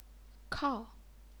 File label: "kao4", chinese pinyin